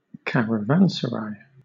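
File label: "caravanserai" English, British accent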